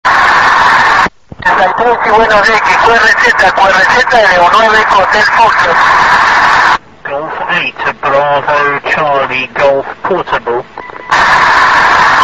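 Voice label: amateur radio contact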